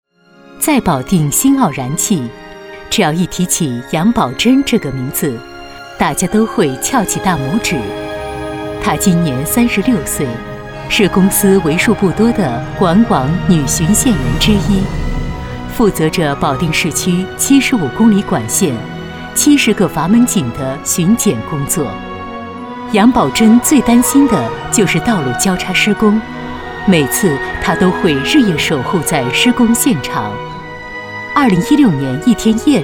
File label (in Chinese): 女-人物样音